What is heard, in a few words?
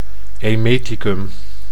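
"emeticum", Dutch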